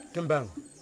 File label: "chest", Yimas